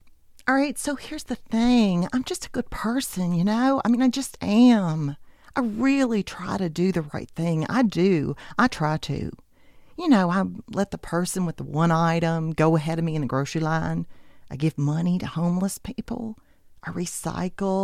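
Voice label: American English, Narration; GoLocalise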